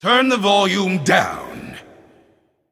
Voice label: Male Void Elf Emote trigger Silence